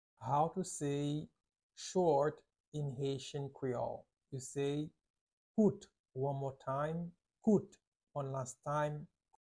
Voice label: "Kout" Haitian Creole pronunciation by a native Haitian tutor; How to say Short in Haitian Creole